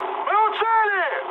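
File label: Russian near waypoint mood high, speech; War Thunder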